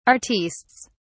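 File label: "artistes", English, British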